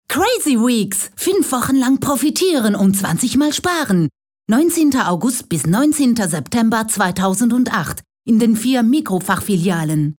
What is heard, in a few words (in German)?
Spot; Migros 2008; deutsch